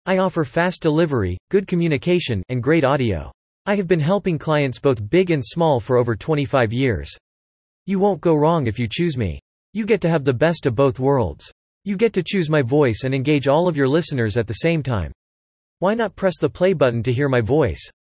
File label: man, audiobooks